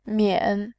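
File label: "mian", Mandarin, tone 3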